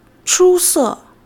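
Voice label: "chusè", Chinese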